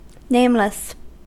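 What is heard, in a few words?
"nameless", English, American accent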